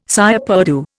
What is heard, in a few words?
"cire perdue", Indian